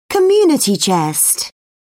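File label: "community chest", British English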